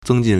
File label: "zeng1jin4", Chinese; 增进 (增進) zēngjìn; verb; to promote; to enhance